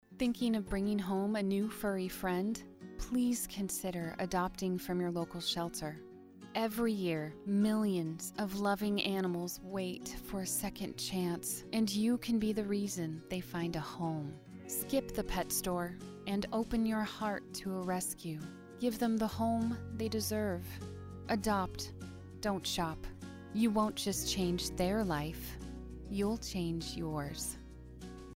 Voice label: PSA Adopt Don t Shop